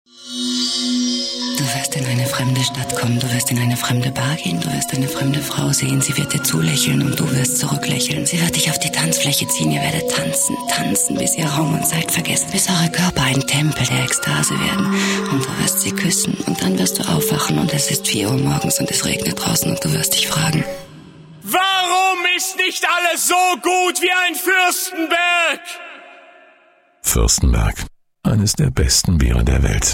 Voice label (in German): werbung; fuerstenberg